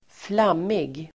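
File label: "flammig", Swedish; Uttal: [²fl'am:ig]; blotched, streaked; strimmig, ojämn till färgen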